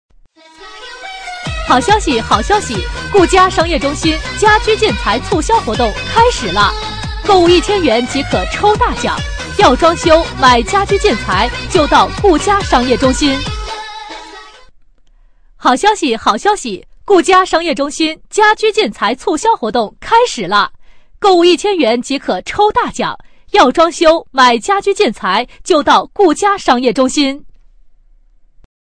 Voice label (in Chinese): 女 促销 家居